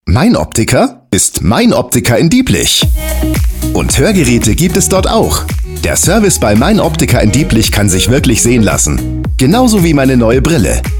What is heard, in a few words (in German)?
Funkspot; ROCKLAND; Mein Optiker